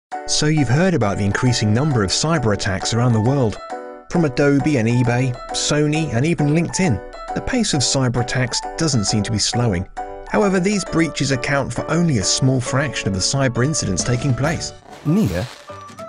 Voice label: Explainer